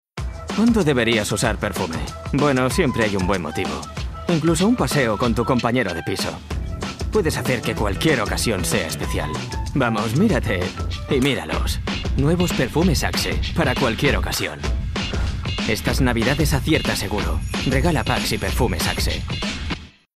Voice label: male spanish gel comercial; ideasonora

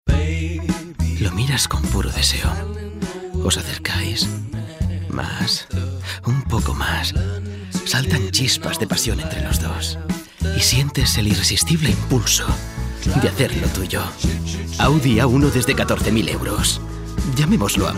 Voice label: male spanish car comercial; ideasonora

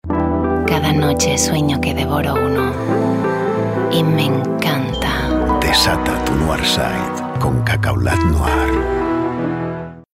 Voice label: female spanish food comercial; ideasonora

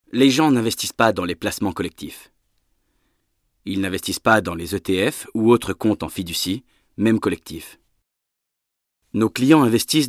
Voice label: male french corporate comercial; ideasonora

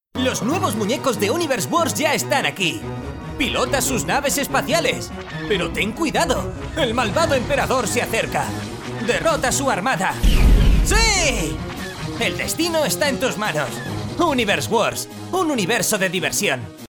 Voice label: male spanish toys comercial; ideasonora

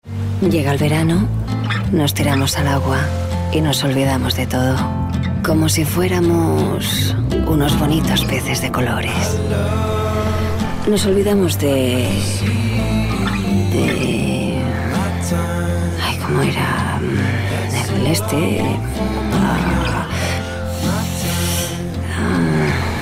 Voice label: female spanish sport brand comercial; ideasonora

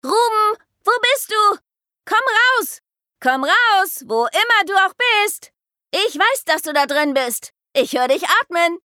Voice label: female german commercial; ideasonora

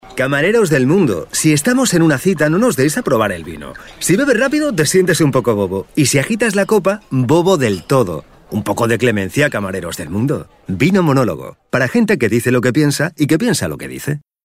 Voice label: male spanish wine comercial; ideasonora

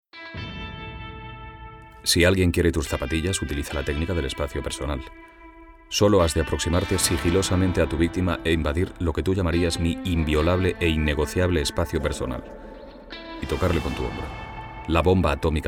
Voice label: male spanish comercial; wallmart; ideasonora

